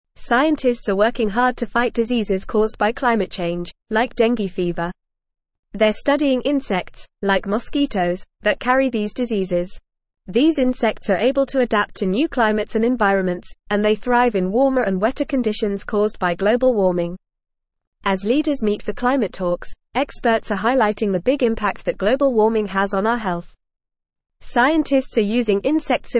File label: Upper Intermediate FAST Reading, English; English Newsroom; Using Insect Surveillance and Climate Forecasts to Fight Climate Fuelled Diseases; Scientists are working hard to fight diseases caused by climate change, like dengue fever.